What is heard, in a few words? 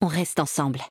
vo, fr-fr; quinn